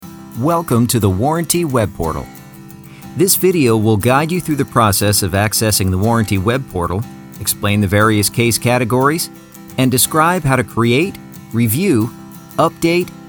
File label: Voice Over E Learning Automotive